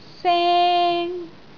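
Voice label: "seng1", Cantonese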